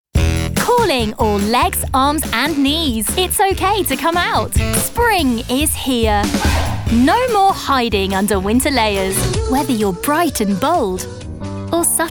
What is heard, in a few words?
commercial